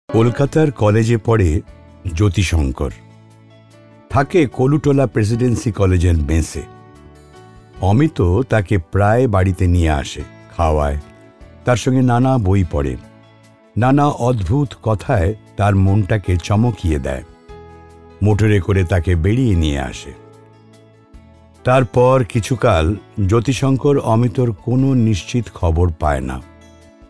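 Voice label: Bengali, male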